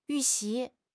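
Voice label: "yu4 xi2", Chinese; HSK4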